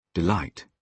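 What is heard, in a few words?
"delight", British English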